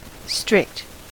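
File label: "strict", US English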